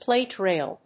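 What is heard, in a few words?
"plate rail", English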